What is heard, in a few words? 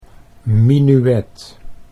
"minuet", Dutch